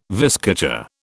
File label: "viscacha", British